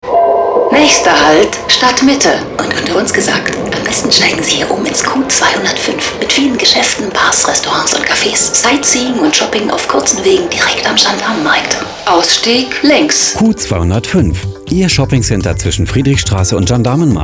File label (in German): Lifestyle Luxus Radiowerbung